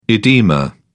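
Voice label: "oedema", English, British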